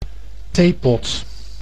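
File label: "theepot", Dutch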